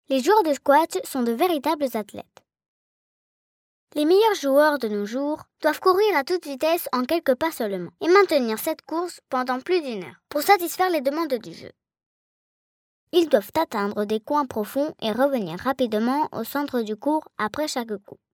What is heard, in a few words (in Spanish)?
locutora voz infantil francesa